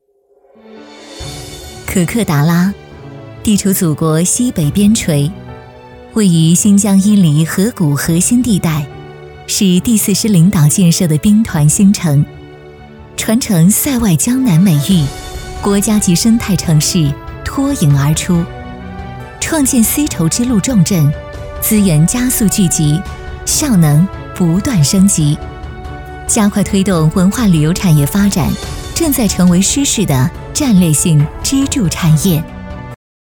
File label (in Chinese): 女 多媒体 主题公园